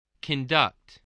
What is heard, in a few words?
"conduct", english